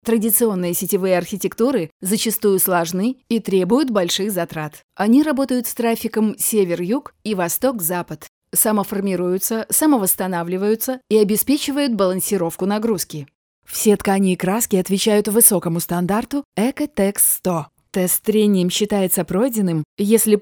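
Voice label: present Russian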